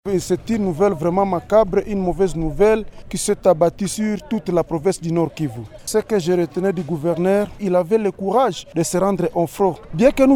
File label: VOX POP, FR; Butembo; certains habitants de butembo veulent voir la guerre finir